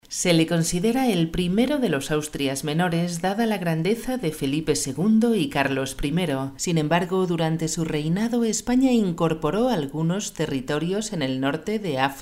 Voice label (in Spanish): Documental